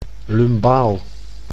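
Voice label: "lumbaal", Dutch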